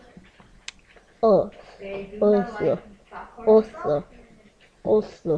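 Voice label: "also", spoken word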